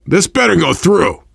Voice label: world farmhouse, voice, biker